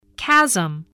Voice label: "chasm", English, voice